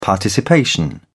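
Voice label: "participation", British English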